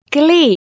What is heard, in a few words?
"ghlei" PIE